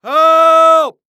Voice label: Human Male Yell Help